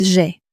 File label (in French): j'ai, common french words